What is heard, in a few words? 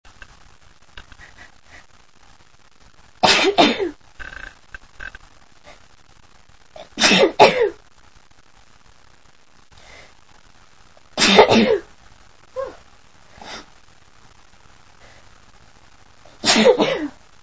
female